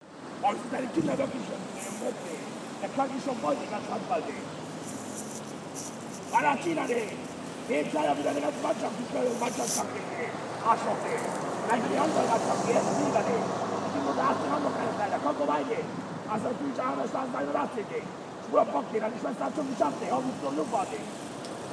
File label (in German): schimpfender Fahrradfahrer